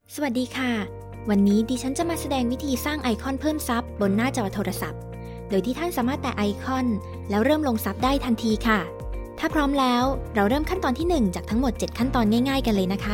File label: thai video explainer